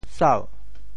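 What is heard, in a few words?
"sau2", Teochew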